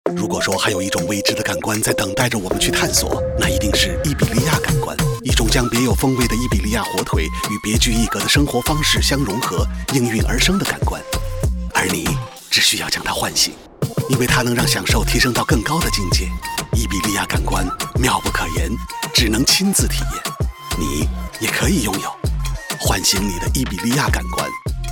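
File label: Mandarin, male; JAMON IBERICO; JAMON IBERICO 30 CHINO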